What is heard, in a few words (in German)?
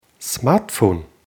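"smartphone", German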